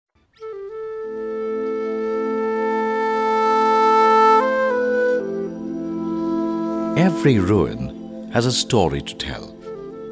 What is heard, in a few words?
Male English